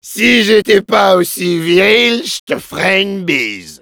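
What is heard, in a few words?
Demoman cheers, French; "Si j'étais pas aussi virile, j'te ferais une bise."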